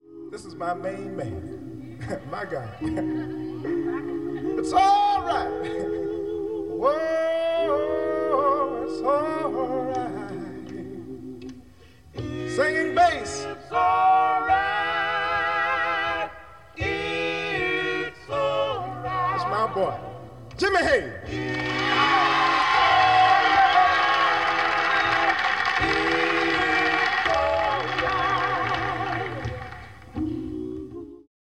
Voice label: Monologue Introductions